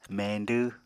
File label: "meñdw", Apatani